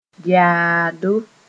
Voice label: "yaado", moore; cemetery

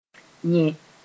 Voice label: "yẽ", Mooré; produce (as: a tuber

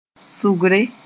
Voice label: "sʋgre", moore

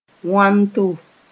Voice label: "wãn to", Mooré

